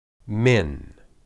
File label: "min", Hebrew